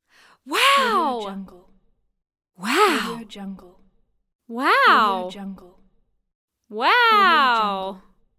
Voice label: female voice wow